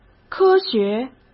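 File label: "科学", Mandarin Chinese; chinese-flashcards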